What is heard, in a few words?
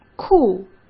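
"库", Mandarin Chinese; chinese flashcards; hsk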